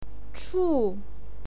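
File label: "chu", Mandarin Chinese, fourth tone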